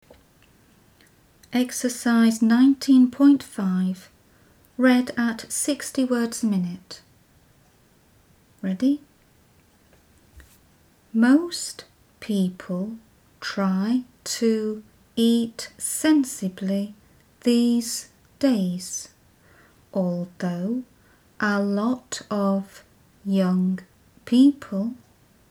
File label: 60wpm; Teeline